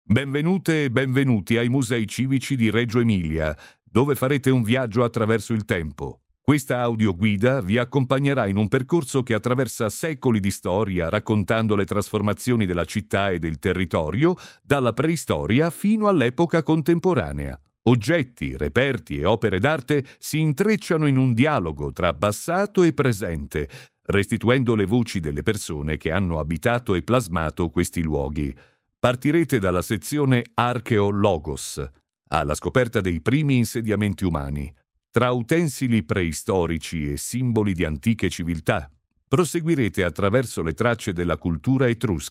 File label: audioguide; palazzo dei musei